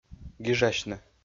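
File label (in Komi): "гижасьны", Komi, male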